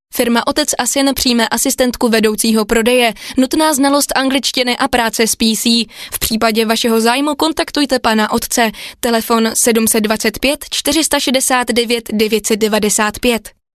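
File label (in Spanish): locutora checa, Female Czech voiceover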